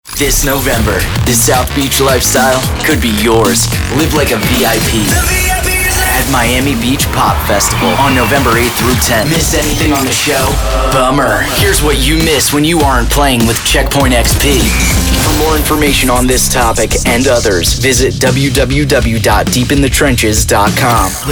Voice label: Imaging VO